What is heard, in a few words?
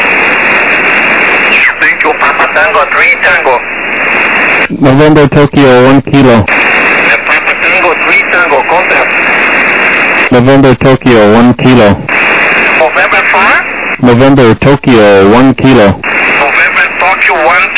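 upper sideband 28MHz; ARRL 10M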